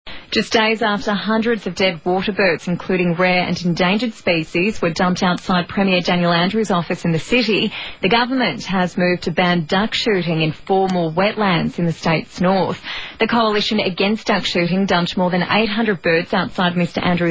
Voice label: news